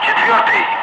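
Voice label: Russian loud; Ka-50; Wingman; DCS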